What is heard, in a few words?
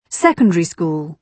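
"secondary school", British English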